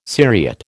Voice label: "seriate", American